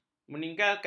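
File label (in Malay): "meninggalkan", Malay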